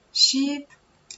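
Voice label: "sheep", English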